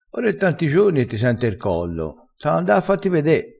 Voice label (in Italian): "t ha", vocabolario dialettale